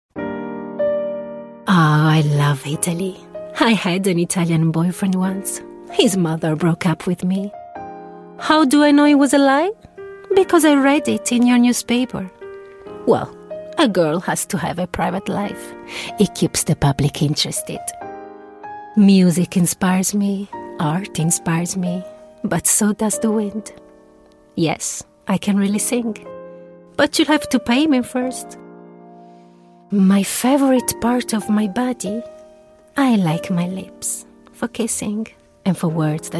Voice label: Accented English Commercial